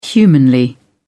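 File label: "humanly", English, uk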